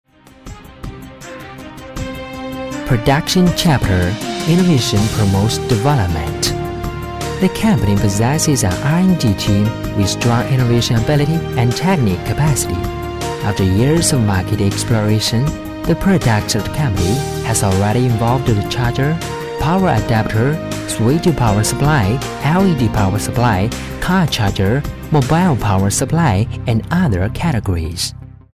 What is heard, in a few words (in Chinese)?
英语男, 企业专题片; 上海柏仕佳